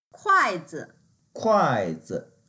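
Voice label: "kuai4zi", Mandarin Chinese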